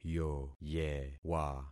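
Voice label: "yoyewa", concatenated syllables; Affixing experiment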